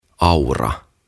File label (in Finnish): "Aura" "Plough"